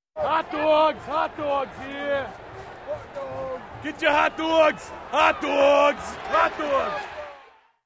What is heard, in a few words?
Funny Hotdog seller at match